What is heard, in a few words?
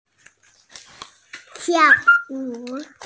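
"dance", Mandarin Chinese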